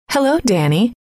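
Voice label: "hello", female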